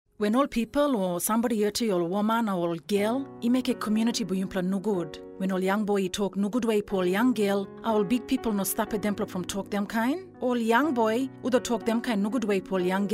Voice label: Kriol radio